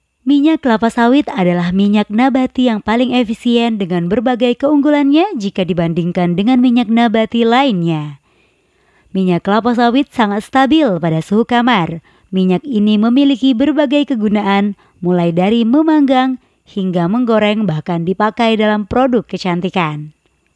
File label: formal indo